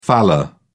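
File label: "faller", British English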